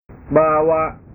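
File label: "bawa", Indonesian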